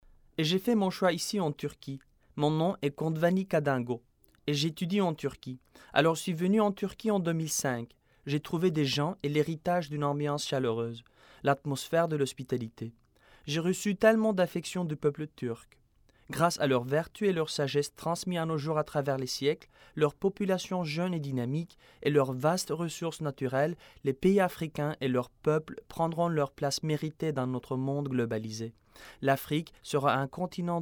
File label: FRENCH MEN